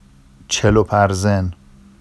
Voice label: “ʧeloparzen”, Vafsi; n colander; چـِـلُ‌پَرزِن

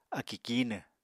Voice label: "akì kíinw", Apatani

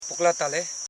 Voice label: "pu kla ta le", Koro

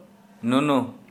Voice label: Santali, breasts

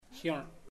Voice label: butterfly, chamacoco; Puerto Diana

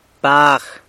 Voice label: "bag", San Lucas Quiavini; cow

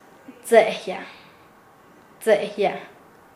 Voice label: "robar", Nasa Yuwe; paez

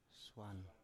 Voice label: "swan", Biak

